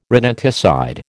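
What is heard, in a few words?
"rodenticide", American English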